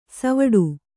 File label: "ಸವ್ವಡಿ", Kannada